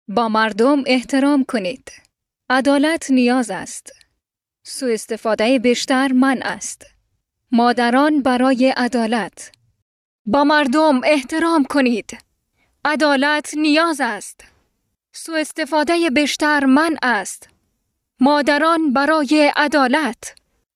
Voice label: Dari

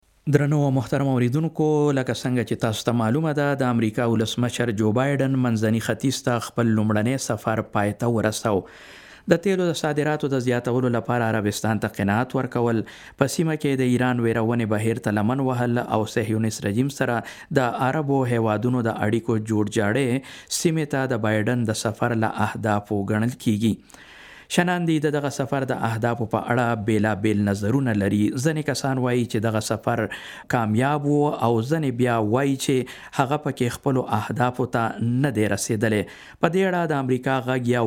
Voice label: Pashto Podcast

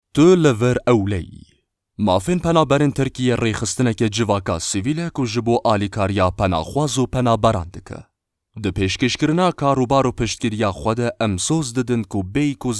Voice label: Kurdish Kurmanji Commercial

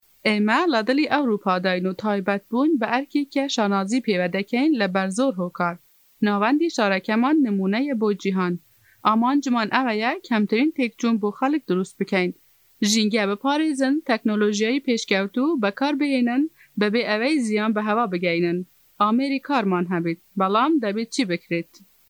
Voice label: Kurdish Sorani, Female, Adult

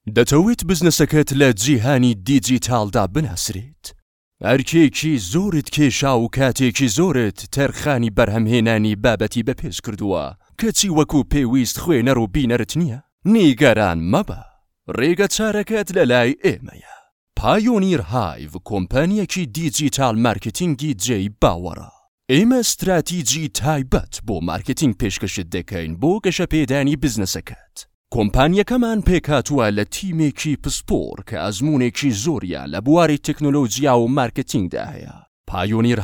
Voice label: Kurdish Sorani Commercial

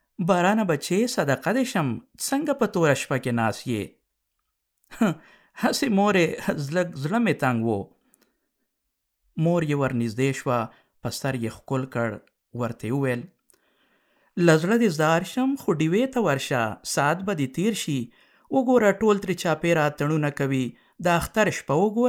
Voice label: Pashto Dubbing

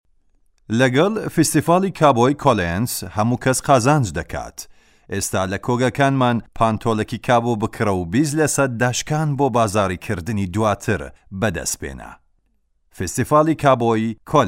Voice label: Sorani Commercial

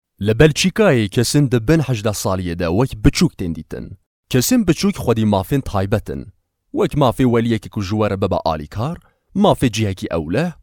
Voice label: Kurdish Kurmanji Informatively, Male, Adult

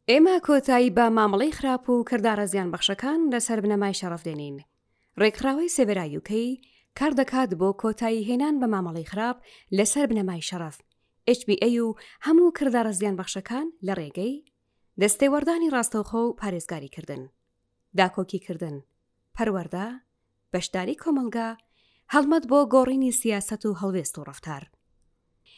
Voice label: Kurdish Sorani Educational, Female, Adult

